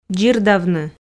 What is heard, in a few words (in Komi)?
"дзирдавны", Komi